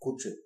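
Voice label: dog, Bulgarian; tuxpaint-stamps; animals; mammals; dogs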